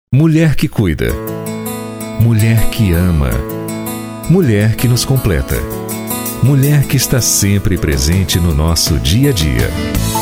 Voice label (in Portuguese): Masculino, Português - Brasileiro, Voz Madura; Dia Internacional da Mulher; Rio de Janeiro, Rio de Janeiro - BR